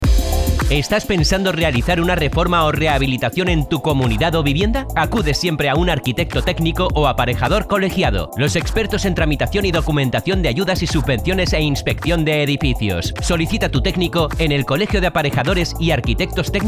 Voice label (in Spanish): Cuña Publicitaria